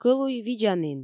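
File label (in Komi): кӧлуй видзанін, Komi, female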